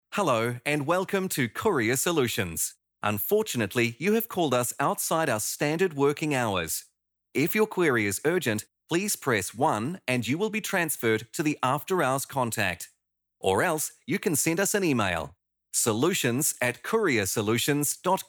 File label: NZ Male Voice Phone